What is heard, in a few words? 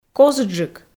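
"косджык", Komi, female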